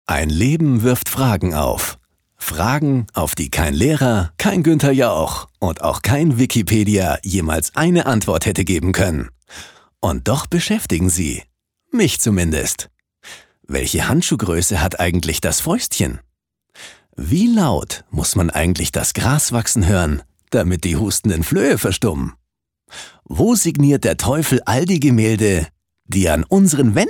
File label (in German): Schauspiel Lebendig